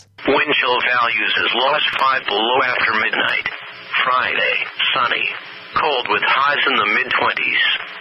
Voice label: old weather radio voice; Wave Farm